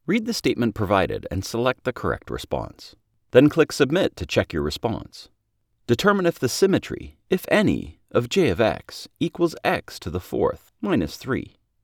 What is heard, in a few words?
E-Learning Read